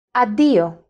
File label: Greek Goodbye